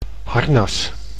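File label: "harnas", Dutch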